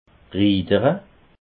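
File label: "ridere", Alsatian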